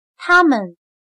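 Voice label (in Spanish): "他们", chino mandarin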